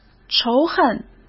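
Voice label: "仇恨", Mandarin Chinese; chinese-flashcards; hsk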